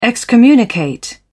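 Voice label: "excommunicate", British English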